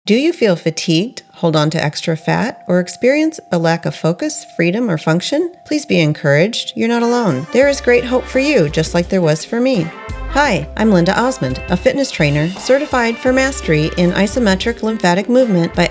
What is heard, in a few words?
Radio Commercial; T-Tapp